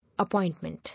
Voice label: "Appointment", English